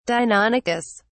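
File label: "deinonychus", British English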